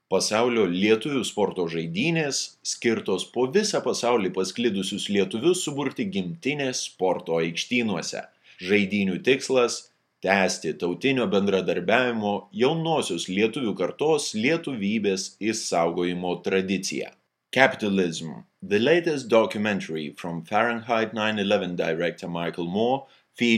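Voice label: Lithuanian General; GoLocalise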